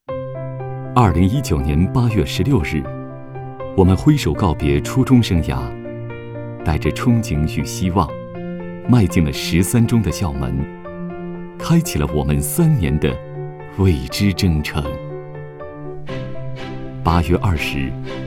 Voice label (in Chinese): 专题片